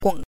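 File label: "gong", neutral tone, Mandarin Chinese pinyin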